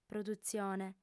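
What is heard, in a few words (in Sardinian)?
"produtzione", female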